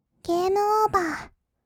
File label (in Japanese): ゲームオーバー, kawaii voice; ASMR; 色々なワンショット; 1200 kawaii voice!!